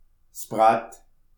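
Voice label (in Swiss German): "brattel", Alsatian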